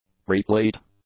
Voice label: "replete", English; adjectives